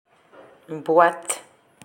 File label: "boite" French; slang words